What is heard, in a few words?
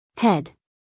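"head", American English, female; everyone-can-use-english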